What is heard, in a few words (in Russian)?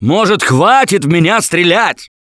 friendly fire, left4dead2 russian, Survivor Voice; Gambler